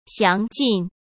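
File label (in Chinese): "详尽", Mandarin Chinese; chinese-flashcards; hsk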